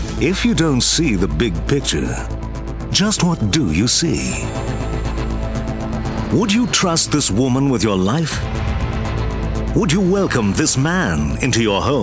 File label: English British political ads